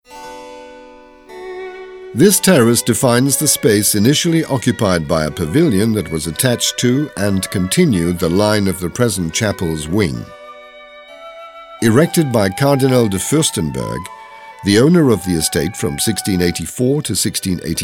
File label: audioguide en adulte; modave